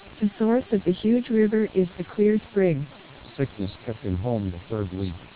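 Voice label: noisy English speech at SNR = 10 dB, TWELP 2400 bps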